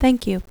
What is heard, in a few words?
female thank you